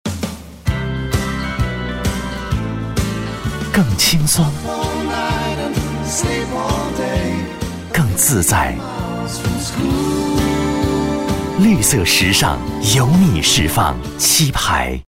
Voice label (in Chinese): 广告 品牌形象; 柒牌